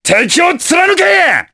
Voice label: Vox Skill jp; Baudouin; kingsraid

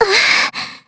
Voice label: Vox Damage jp; Maria; kingsraid; voices heroes

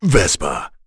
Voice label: Vox, en; kingsraid; voices heroes

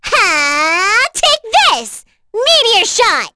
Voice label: Vox Skill, en; kingsraid; voices heroes

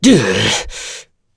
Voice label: Vox Sad; Lusikiel; kingsraid; voices heroes en

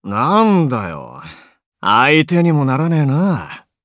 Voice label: Vox Victory jp; Kibera; kings raid

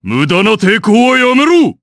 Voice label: Vox Skill jp; Bernheim; kingsraid

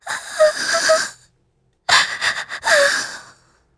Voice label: Vox Sad; kingsraid

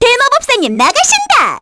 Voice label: vox get, kr; Cleo; kingsraid

